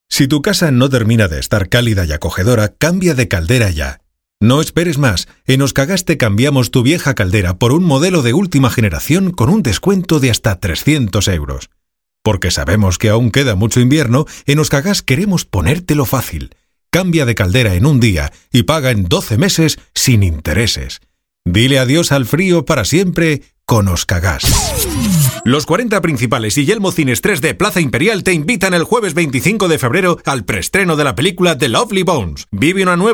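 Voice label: male Castilian Spanish voice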